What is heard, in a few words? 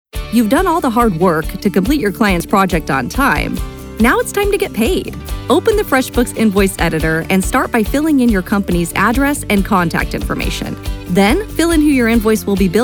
eLearning Female; commercial voiceover